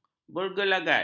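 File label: "bergelagat", Malay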